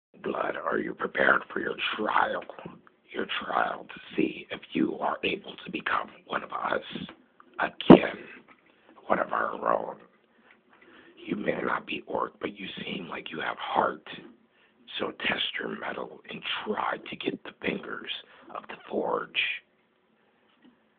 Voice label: orc, voice auditions